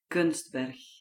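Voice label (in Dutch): "Kunstberg", Dutch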